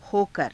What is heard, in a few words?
"hokara", Hindi